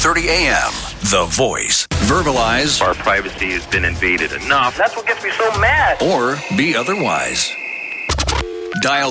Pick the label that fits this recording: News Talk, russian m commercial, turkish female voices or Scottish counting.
News Talk